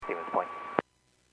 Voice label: Airports 122.7, Radio; KSTE

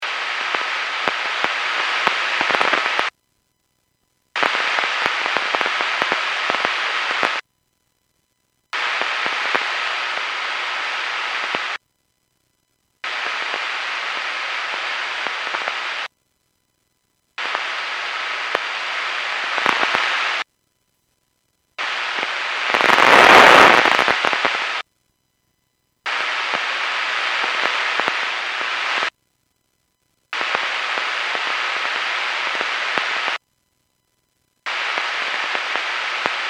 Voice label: Skywarn 146.67; Stevens Point

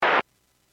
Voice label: Aviation 121.5 Emergency

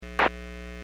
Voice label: Aviation 122.7; KSTE

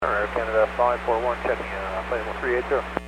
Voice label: Aviation 121.5 Emergency; Radio

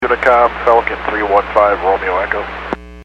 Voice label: Aviation 122.7; KSTE

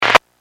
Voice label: Skywarn 146.67; Stevens Point

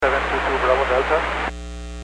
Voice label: Aviation 121.5 Emergency

